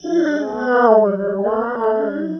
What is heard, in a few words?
Childish Voice; Vocal; Myths of Technology